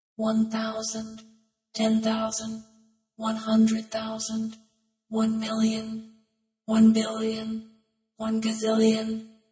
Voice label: Numbers 1000, Computer voice, counting numbers 1000 to gazillion, speaking; Computers; (human voice)